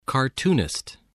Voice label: "cartoonist", spelling

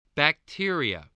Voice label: "bacteria", english

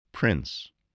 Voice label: "prince", voice, spelling

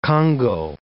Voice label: "congou", English

